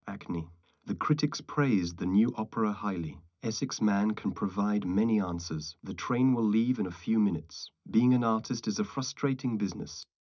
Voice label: male 130ms 50cm; SiSEC11; underdetermined